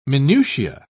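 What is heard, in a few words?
"minutia", British English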